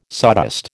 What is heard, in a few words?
"sawdust", American